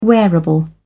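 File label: "wearable", British English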